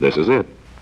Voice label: "this is it", announcer; Voice

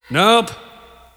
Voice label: "nope", announcer; Voice

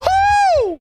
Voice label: "hoo"; ooh ahh; ho hoo woo

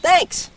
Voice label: "thanks" kid; Voice; expletives

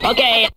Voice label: "okay", expletives

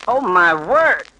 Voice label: "oh my word" male; Voice; expletives

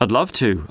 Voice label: "i'd love to", male; Voice

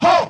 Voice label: "ho" male; ooh ahh; ho hoo woo

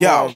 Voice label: "yo", Voice

